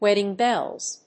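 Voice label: "Wedding Bells", English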